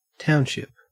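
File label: "township", Australian English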